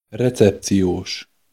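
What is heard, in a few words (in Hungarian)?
"recepcios", Hungarian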